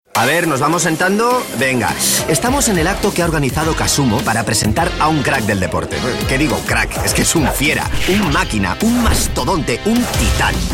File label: male spanish tv comercial